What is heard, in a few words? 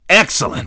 dj nice; DJ VOICE